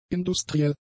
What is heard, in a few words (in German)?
"industriell", German; adjectives